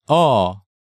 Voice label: "Eo", Hangeul sounds; DigitalSoju